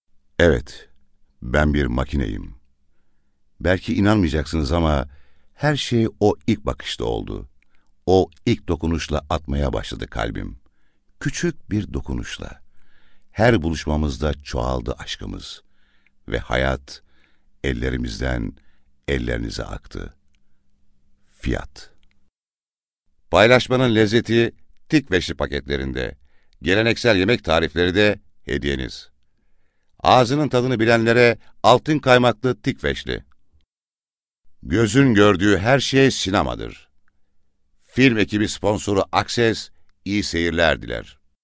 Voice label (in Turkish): erkek sesler, turkce seslendirme